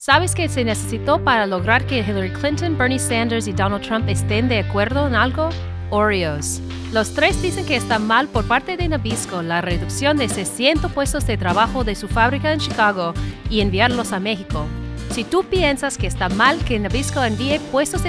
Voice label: Boycott Commercial SPANISH